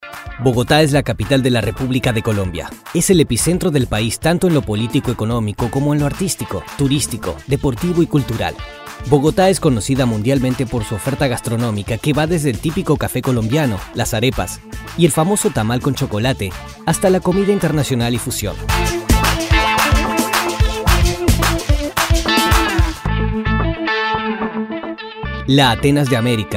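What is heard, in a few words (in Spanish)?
Corporativo Turismo, male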